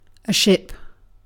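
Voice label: "ship", British English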